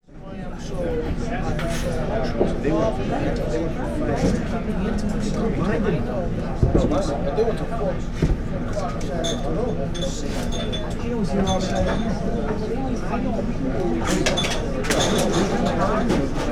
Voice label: Restaurant Bar with People conversing